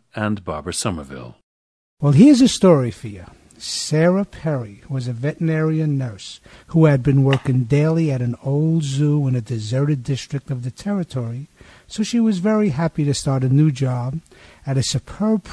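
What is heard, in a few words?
new york, International Dialects of English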